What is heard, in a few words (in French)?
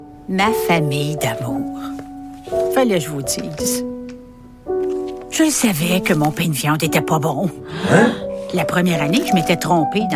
PUB voix personnage, PUB/Voix de femme âgée, touchante, tendre et bienveillante; IGA; Ma tante Loulou